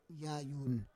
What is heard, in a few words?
"yayun", Roon